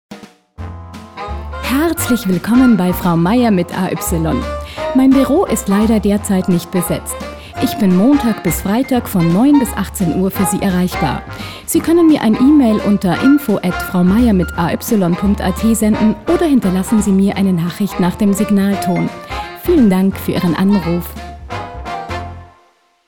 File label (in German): Telefon Ansage